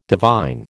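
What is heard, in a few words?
"divine", American English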